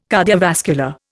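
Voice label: "cardiovascular", Indian English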